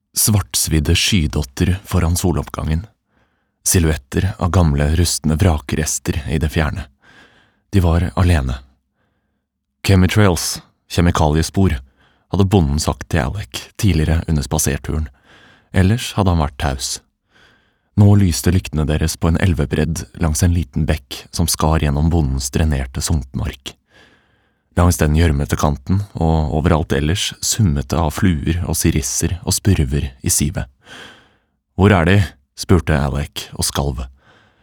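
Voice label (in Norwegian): lydbok, Norwegian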